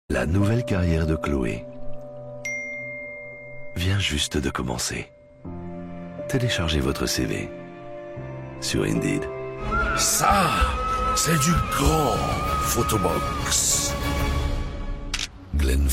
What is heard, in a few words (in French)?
voix off homme, French